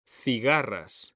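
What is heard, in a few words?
"cigarras", Spanish, male; mekanta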